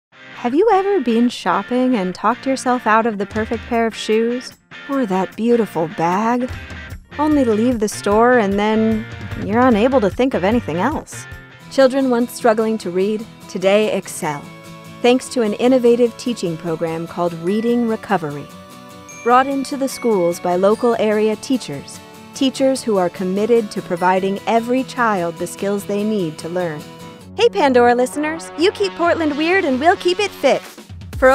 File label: Commercial